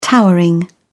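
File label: "towering", British English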